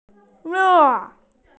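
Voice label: "le4", Mandarin Chinese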